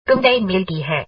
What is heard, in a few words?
Mail Hindi